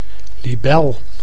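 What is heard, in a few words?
"libel", Dutch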